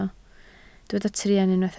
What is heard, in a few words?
"truan", Welsh